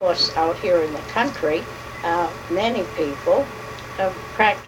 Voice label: Oral history with 85 year old white female Concord Massachusetts, american english dialect